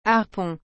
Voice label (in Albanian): "harpon", frengjisht